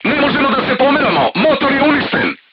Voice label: voice message engine destroyed, serbian crew tanks